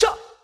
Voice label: Jah Rastafarian Vox